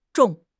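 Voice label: "zhong3", Chinese; HSK; HSK3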